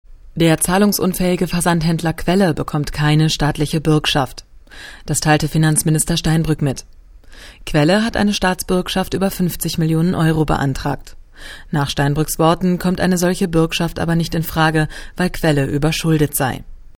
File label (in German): deutsch nachrichten politik